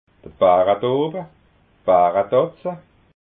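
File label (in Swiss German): "baradooba", Alsatian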